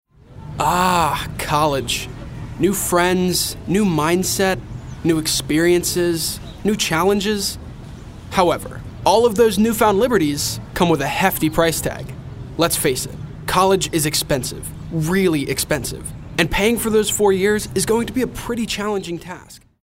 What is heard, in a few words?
millenial